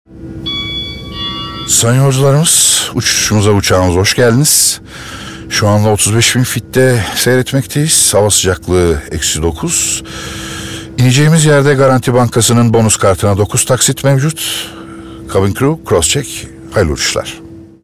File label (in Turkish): reklam; garanti